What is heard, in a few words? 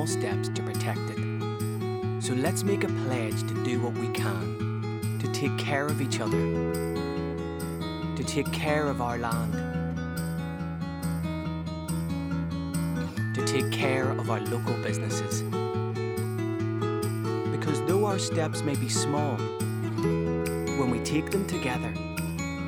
male english northern irish accent; discover northern ireland charter vide